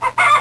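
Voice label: "egg", English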